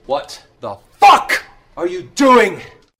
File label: what the fuck are you doing, male; questions